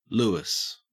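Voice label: "louis", Australian English; pronunciations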